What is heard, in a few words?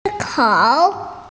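"think", Mandarin Chinese, female; si1kao3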